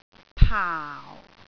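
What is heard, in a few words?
"paau4", Cantonese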